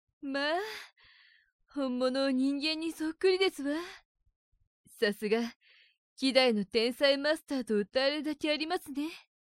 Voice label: woman; 【女性声】; 高〜中音の女性声。おしとやかな感じ。; （自企画「ラルカ-はじまりの物語」 エキストラ台詞より）